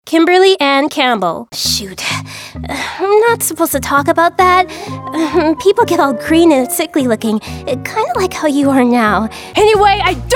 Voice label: character VO reel